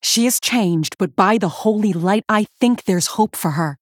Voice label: Female Human